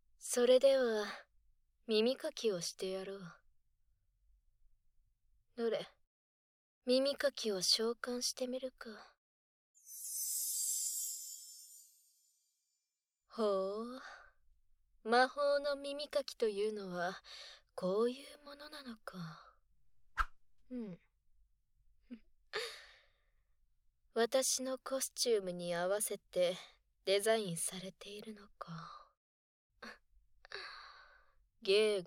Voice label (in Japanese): 魔法の耳かきで堕としてやろう（左選択）（声のみ音量70%）; ライバル魔法少女の耳かき